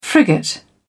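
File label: "frigate", British English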